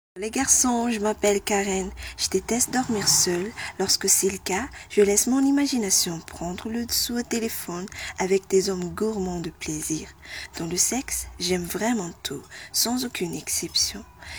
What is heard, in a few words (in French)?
annonce